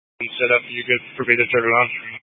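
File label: Incident Tac, Scanner audio recording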